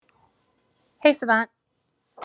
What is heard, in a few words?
"hey savant", en; wake-word